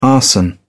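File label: "arson", British English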